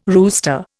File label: "rooster", Indian